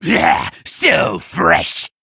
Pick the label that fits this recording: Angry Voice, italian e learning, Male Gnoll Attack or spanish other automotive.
Male Gnoll Attack